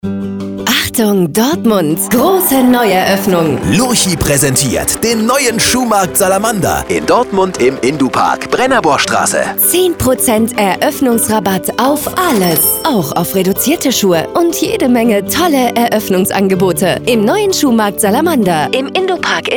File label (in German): Radiospot Schuhmarkt; Salamander Dortmund